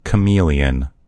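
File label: "chameleon", American English; gcompris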